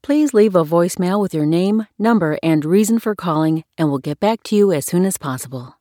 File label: Voicemail